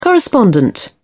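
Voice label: "correspondent", British English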